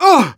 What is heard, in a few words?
Medic pain sharp; Grunt 6; Respuestas del Medic